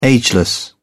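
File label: "ageless", British English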